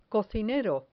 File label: "cocinero", Spanish